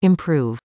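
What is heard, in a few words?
"improve", English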